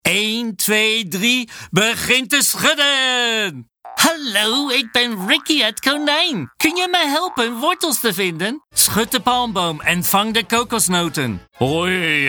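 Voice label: Dutch, toys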